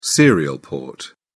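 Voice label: "serial port", British English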